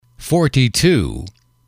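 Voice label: "forty two", English, male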